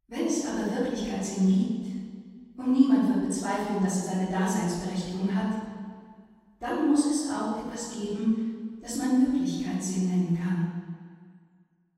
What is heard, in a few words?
disney hall female